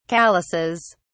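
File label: "calluses", British English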